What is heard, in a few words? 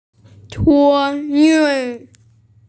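"tuo1yun4", Mandarin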